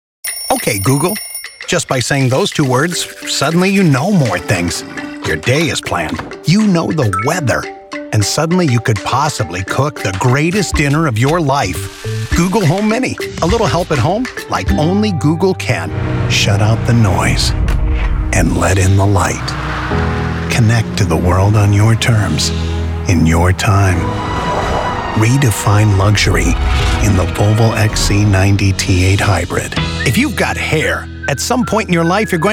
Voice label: male english usa commercial compilation